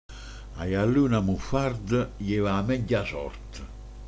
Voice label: "gallina", manfredoniano

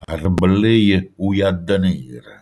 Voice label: Alla vecchjéje 'i calze ròsse, Manfredoniano; Proverbi e Detti

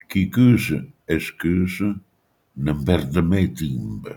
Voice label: "cucire", manfredoniano

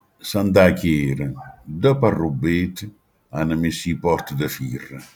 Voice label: "chiara", manfredoniano

